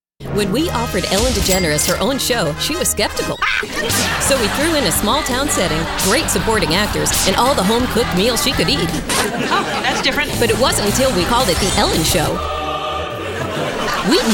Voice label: Promos